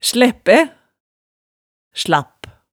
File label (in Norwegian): "slæppæ", hemsedalsmaalet